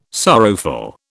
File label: "sorrowful", British